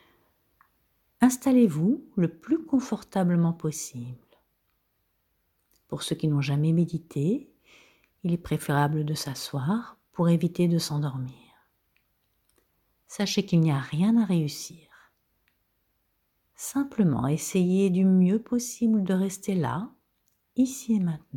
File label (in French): Meditation ouvrir espace notre ocean interieur